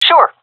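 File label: agree, female; Counter Strike Model Pack; CS:GO; seal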